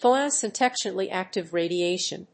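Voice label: "Photosynthetically active radiation", English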